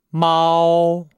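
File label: "mao", Chinese; Nihao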